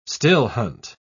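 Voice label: "still-hunt", British English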